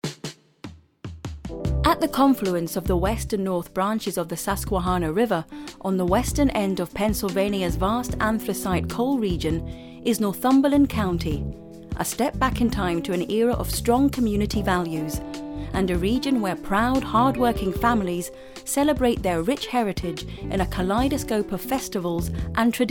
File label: Corporate